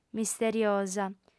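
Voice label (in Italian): "misteriosa", lingua sarda, fem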